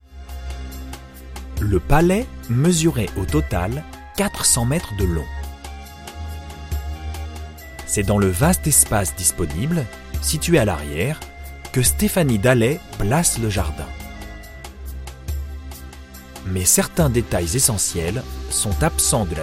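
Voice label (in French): docu medium pose, voix off masculine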